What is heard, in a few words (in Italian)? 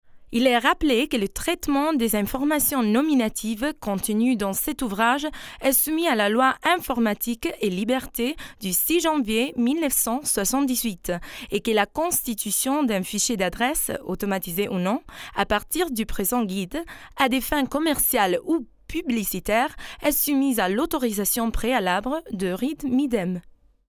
francese normale; FEMMINILE FRANCESE; Speaker Madrelingua